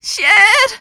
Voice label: Panic; zps; Humans; HumanLea